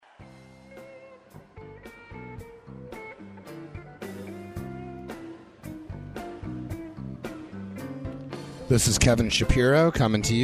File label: radio banter; Walnut Creek Release